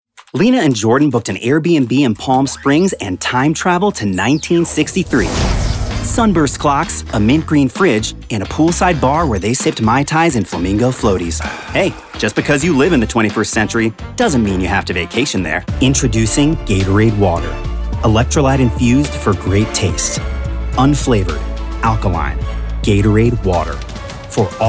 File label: Commercial